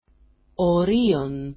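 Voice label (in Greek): "orion", Greek; Ωρίων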